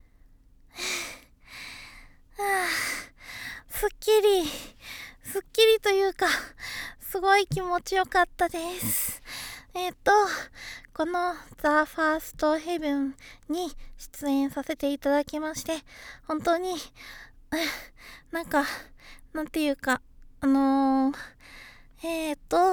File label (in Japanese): 事後フリートーク; 【ガチオナニー実演】ズプズプ音してる...THE FIRST HEAVEN【淫乱在宅クリエイター】